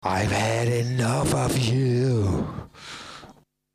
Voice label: Ive Had Enough, PitchShaper; Eurorack; Voice Sampling